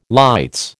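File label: "lights", American English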